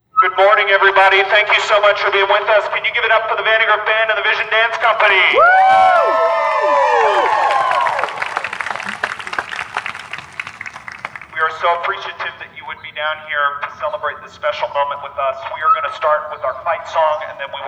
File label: Announcement; Hawaii